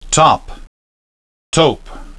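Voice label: "top" "tope", English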